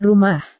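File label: "rumah", Indonesian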